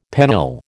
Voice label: "pennill", American